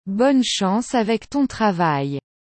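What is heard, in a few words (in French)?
"Bonne chance avec ton travail", French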